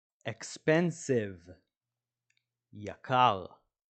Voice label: "expensive" – "יקר"

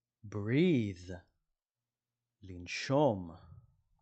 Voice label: "breath" – "לנשום"

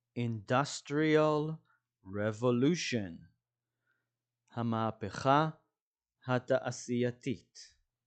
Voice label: "industrial revolution" – "המהפכה התעשייתית"

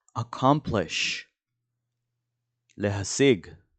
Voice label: "accomplish" "להשיג", dictionary

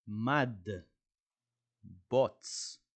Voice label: "mud" – "בוץ"

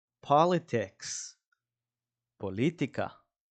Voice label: "politics" – "פוליטיקה"

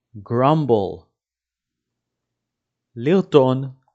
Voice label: grumble – לרטון

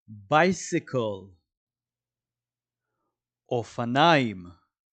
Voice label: bicycle – אופניים

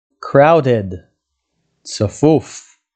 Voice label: "crowded" – "צפוף", dictionary

